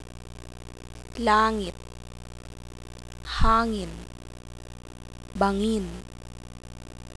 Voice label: ng medial, tagalog

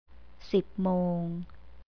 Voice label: "sipmong", Thai; สิบนาฬิกา สิบโมง; 10 a.m.

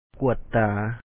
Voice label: ກວດຕາ kua3t taa check the eyes, Lao

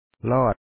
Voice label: "lO1Ot", Lao; to pass under something

